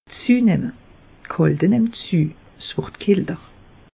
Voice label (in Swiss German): "zuenemme", alsace; meteo